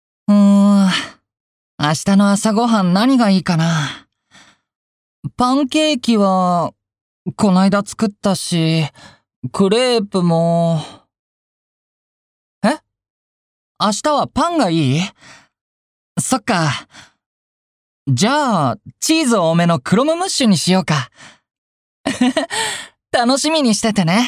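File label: seikaku male fuwafuwa danshi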